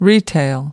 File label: "retail", us